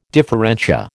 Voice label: "differentia", American